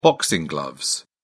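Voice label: "boxing gloves", English, British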